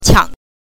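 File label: "qiang", pinyin, neutral tone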